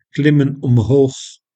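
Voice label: "klimmen omhoog", Dutch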